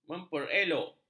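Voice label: "memperelok", Malay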